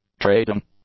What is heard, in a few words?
"trading", English; adjectives